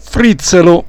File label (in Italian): "frizzelo", dialetto; frizzélo pezzetto, quantità minima; frìtto fegato